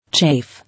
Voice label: "Chafe", English